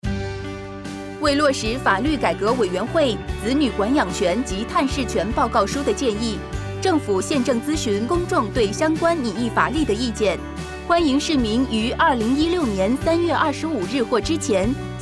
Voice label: Radio API (Putonghua); parental responsibility consult